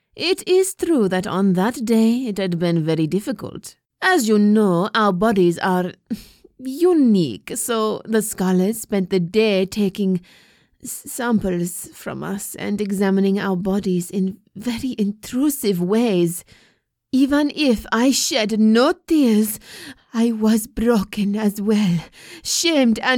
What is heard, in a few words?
Sexy Spaniard Accent; AUDITION